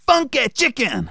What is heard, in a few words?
"AMAZING", Speech, Soul Man